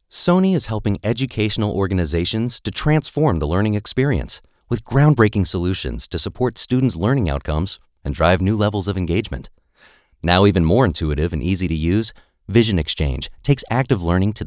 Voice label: Corporates Dry